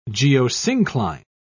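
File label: "geosyncline", British English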